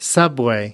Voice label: "subway", us